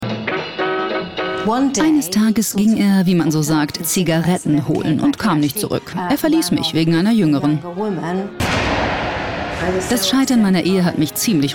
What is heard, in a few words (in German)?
Dynamisch; Fremdtake